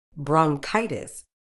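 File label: "bronchitis", British English; brɒŋˈkaɪ.tɪs; brong-KIGH-tiss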